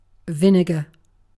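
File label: "vinegar", British English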